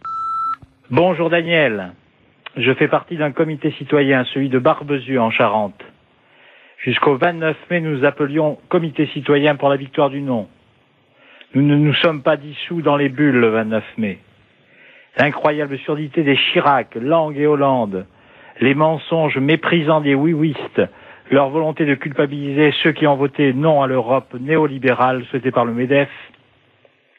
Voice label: repondeur; Comites pour le Non

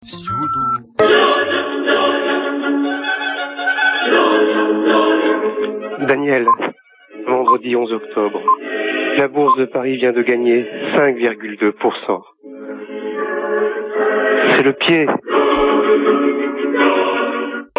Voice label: La Bourse repondeur

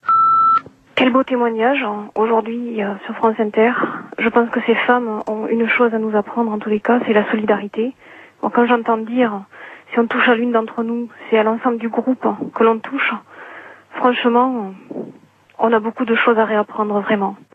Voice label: répondeur; ACCOR

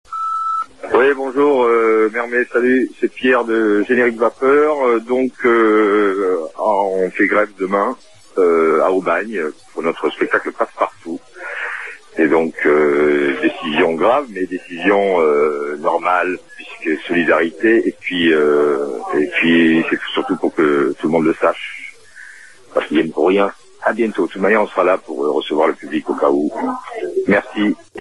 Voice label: Intermittents repondeur; Aubagne

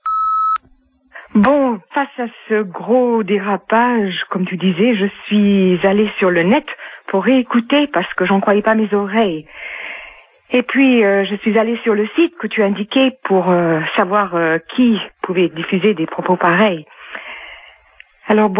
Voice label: répondeur; Derapage Alexandre Adler

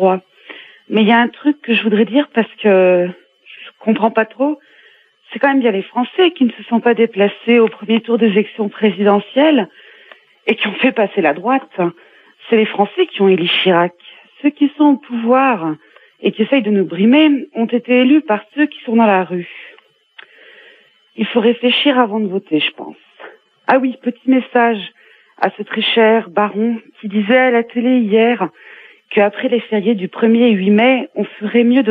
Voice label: Manif retraites fonctionnaire repondeur

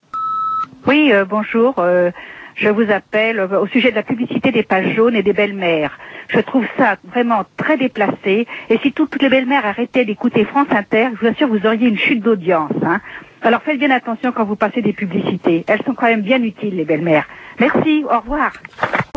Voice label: Belles-meres repondeur

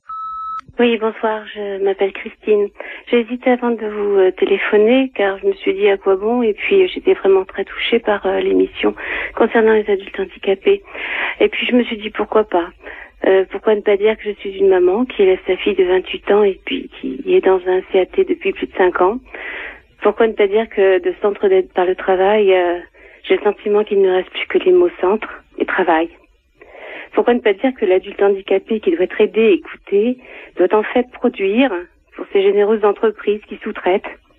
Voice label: repondeur

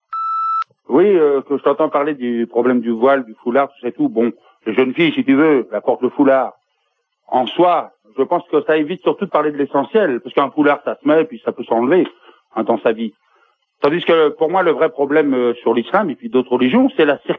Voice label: Circonsition repondeur

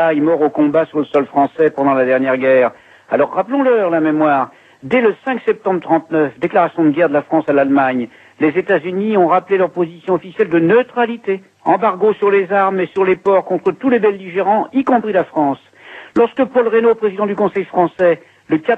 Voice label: Irak USA repondeur

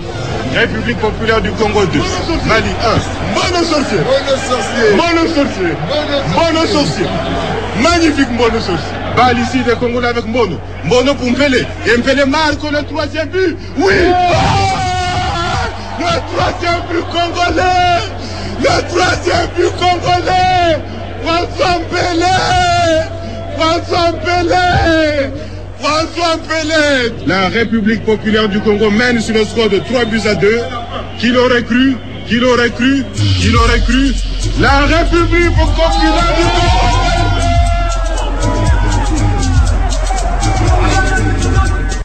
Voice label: Foot africain commentaires sportifs

